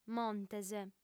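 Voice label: "montes", female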